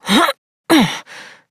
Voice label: Horse Dismount Adult, Dialog; Duviri

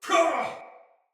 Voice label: Pain, Soldier Male; Sinecure Soldier Male A

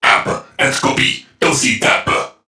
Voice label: Patrol Crewmen, Dialog, Barks; Warframe; CorpusCrewmen; CrewmanA

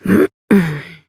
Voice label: Horse Mount Adult, Dialog; Duviri

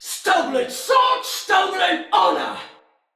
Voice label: Attack, Male, en; Battle; Barks; Dialog; Sinecure Banneret

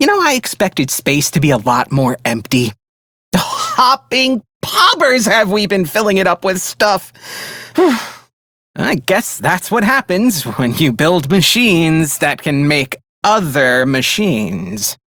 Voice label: Idle, Male; Dialog; Warframe; Railjack Crew; Perrin Sequence

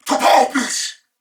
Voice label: Reload Male, en; Dialog; Barks; Battle; Corpus Venus; Warframe; CorpusVenusMaleB

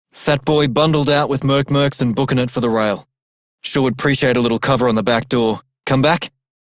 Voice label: Male Radio, en; Dialog; Warframe; Solaris Venus

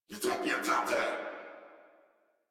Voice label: surprised crewmen; Warframe; Corpus Crewmen; Crewman B

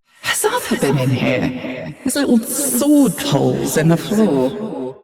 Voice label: Ambient Barks, en

